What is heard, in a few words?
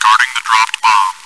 chitchat, bot, voice commands; svencoop